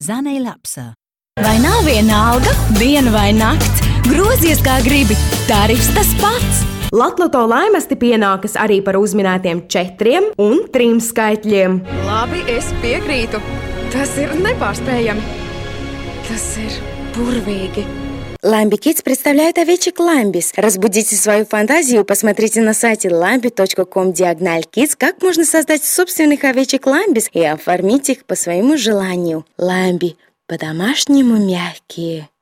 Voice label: Latvian voice artist